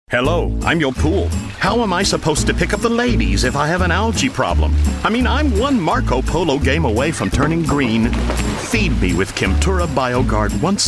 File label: Commercial